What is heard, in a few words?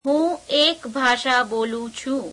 gujarati I speak one language